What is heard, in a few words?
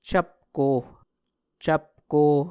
"cvpko", muscogee